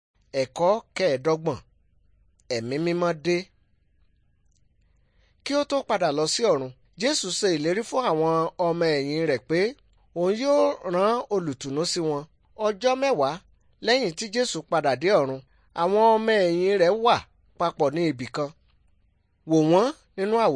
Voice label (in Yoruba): good news yoruba